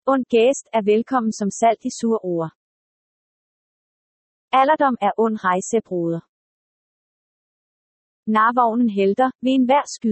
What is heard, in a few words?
danish proverbs